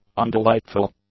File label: "undelightful", English; adjectives